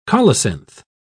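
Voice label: "colocynth", British English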